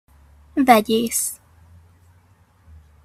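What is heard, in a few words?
"vegyesz", Hungarian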